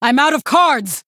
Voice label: Female Human No Cards, hearthstone; Cariel

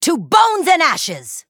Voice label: Female Human Attack

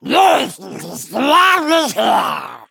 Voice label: Threaten

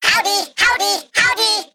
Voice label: Male Mech

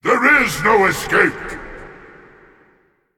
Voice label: Male Demonic Titan Trigger

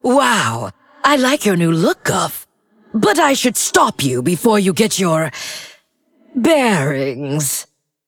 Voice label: Female Undead

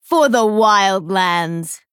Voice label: Female Dryad

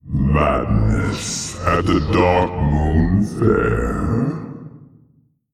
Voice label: Male Old God Intro; Yogg Saron

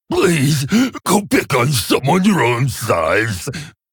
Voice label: Male Orc Play

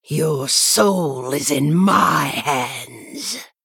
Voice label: Female Night Elf

